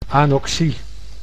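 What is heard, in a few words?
"anoxie", Dutch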